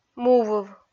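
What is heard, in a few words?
"му выв" Komi, female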